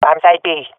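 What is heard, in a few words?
loc b, swat female; vo; agents; Source Model Packs; Counter Strike Model Pack; CS2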